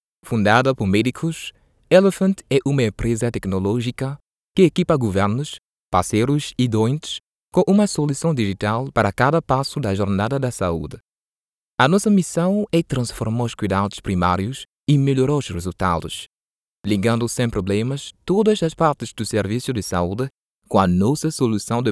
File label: Portuguese commercial; doubleur professionnel africain